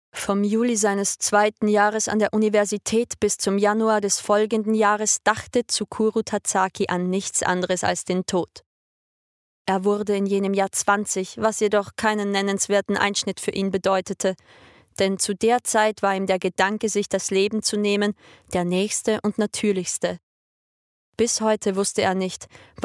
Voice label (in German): Hörbuch; Murakami